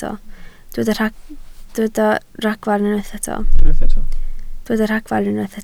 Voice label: "ragfarn", Welsh

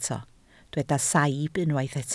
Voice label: "saib", Welsh

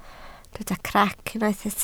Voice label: "crac", Welsh

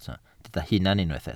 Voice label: "hunan", Welsh

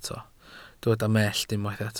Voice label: "mellt", welsh

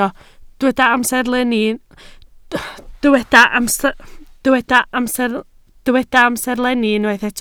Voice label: "amserlenni", Welsh

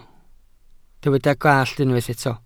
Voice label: "gallt", welsh

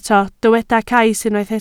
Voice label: "cais", Welsh

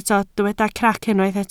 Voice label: "crac", Welsh

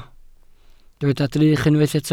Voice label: "drych", Welsh

